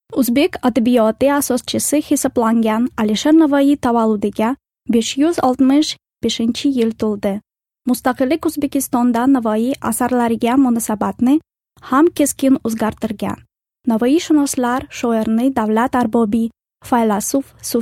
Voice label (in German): Uzbekistan W1, voiceactors